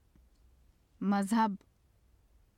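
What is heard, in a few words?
"mazhab", Urdu; مذہب; [məzhəb] (mazhab); 宗教（男）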